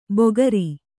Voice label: "ಬೊಗರಿ", Kannada; bogari; noun; its edible fruit.

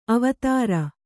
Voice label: "ಅವಧಾರು", kannada

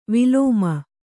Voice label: "ವಿಲೋಮ", Kannada

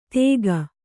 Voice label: "ತೇಗ", Kannada

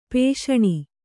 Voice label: "ಪೋಷಣ", Kannada

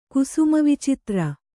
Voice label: "ಕುಸುಮವಿಚಿತ್ರ", kannada

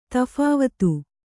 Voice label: "ತಫಾವತು", Kannada

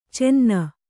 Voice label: "ಚೆನ್ನ", Kannada

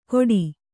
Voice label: "ಕೊಡಿ", Kannada

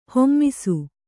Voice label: "ಹೇಮಿಸು", Kannada

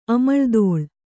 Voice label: "ಅಮಳ್ದೋಳ್", Kannada

